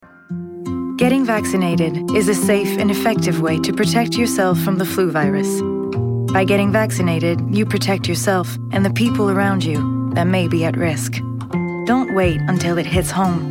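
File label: voix english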